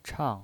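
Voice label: "chang", Mandarin Chinese, fourth tone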